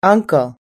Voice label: "uncle", us english

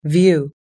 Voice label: "view", us english

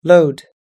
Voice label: "load", us english

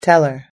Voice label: "teller", us english

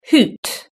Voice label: "hoot", british english

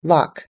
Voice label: "lock", us english

